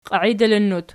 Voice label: "qiaidilinnut", Archi